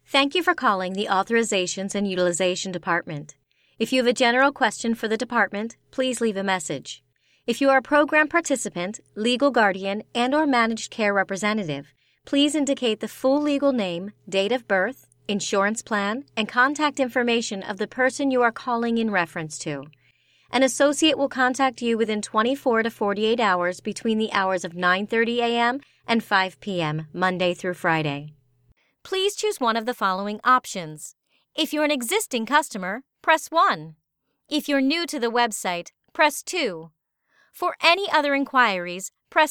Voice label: Telephony

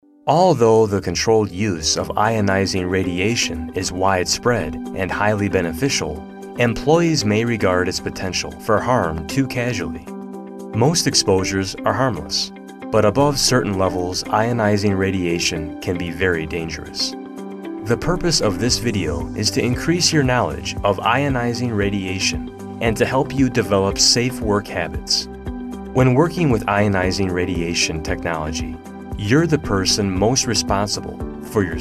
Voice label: Corporate Montage

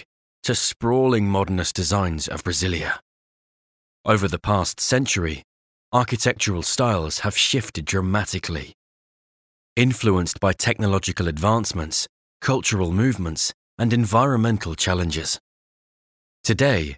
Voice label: Urban Architecture British

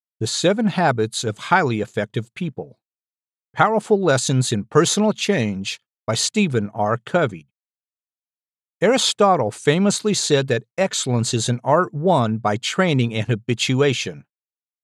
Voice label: Audiobook

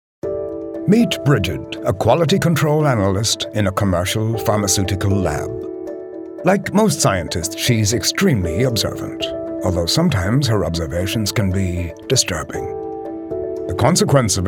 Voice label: Irish Corp

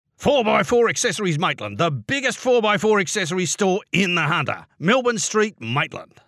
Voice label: rough tough

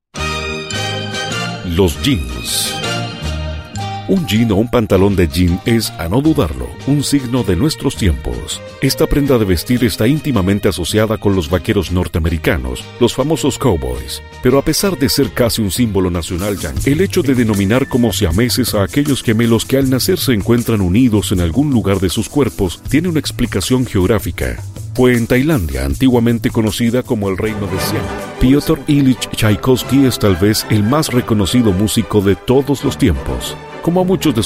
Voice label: Spanish Latin American Neutral Documentaries

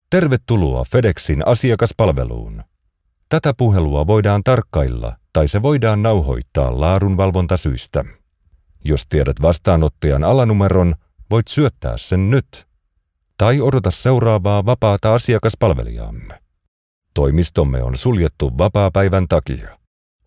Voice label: Phone Systems IVR On Hold Messages

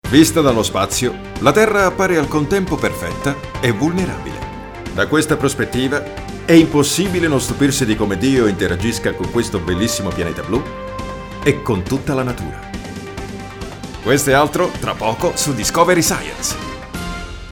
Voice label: Promo documentario

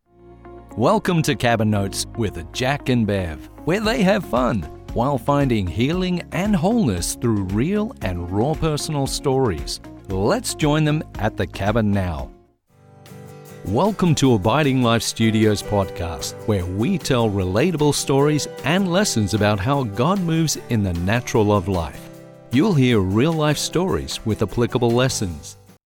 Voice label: Podcast

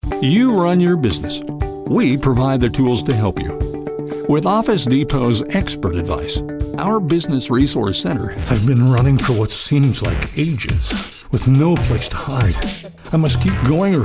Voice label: Male Voice over

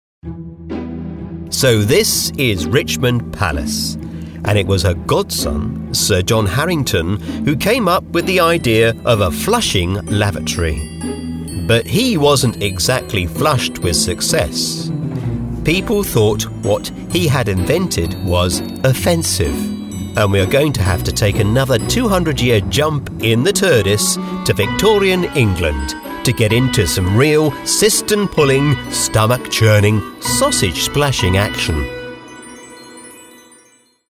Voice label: Audiobooks

